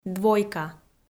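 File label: "двойка", Komi, female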